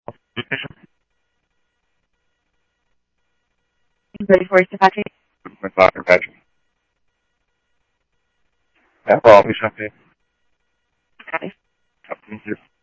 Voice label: Tempe Police, scanner audio; SimulcastF-Tempe